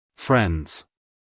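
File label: "friends", American English, male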